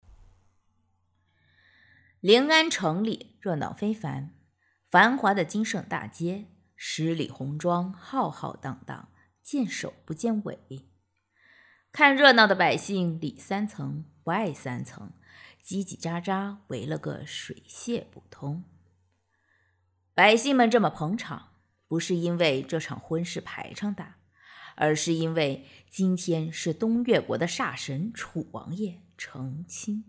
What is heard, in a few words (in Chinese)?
旁白